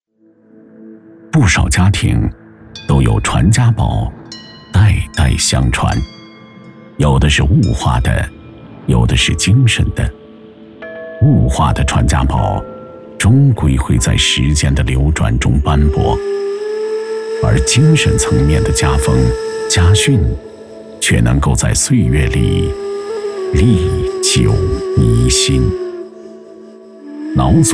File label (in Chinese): 男 讲述; 传家宝